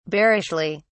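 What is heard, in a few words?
"bearishly", English, British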